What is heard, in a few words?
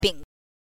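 "bing", Mandarin pinyin, neutral tone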